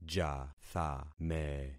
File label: "jathame", concat syllables; Affixing experiment